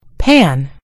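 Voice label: "pan", British English; gcompris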